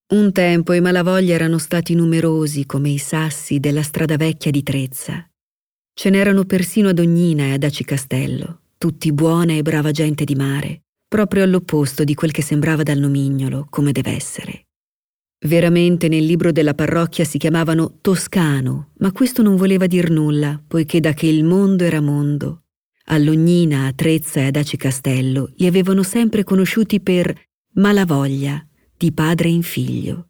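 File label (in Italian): Descrittivo, voci femminili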